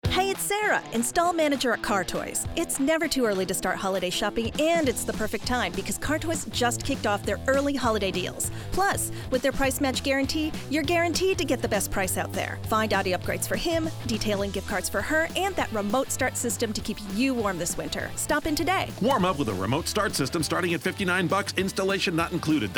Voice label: female english canadian neutral north american accent promo; car toys